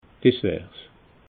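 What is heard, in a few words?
"disswars", Alsatian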